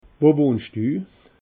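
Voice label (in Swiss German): "wo wohnsch du", Alsatian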